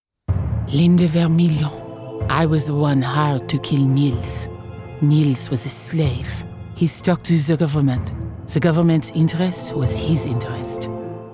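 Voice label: Irish, female; vermillion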